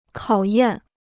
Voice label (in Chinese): "考验", Chinese